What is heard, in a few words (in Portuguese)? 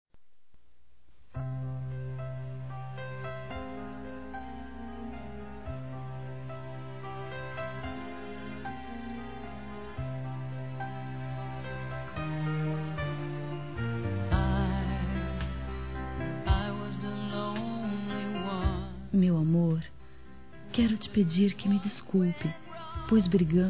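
amor desculpa, Telemensagens, female; Desculpas; Telemensagens 2017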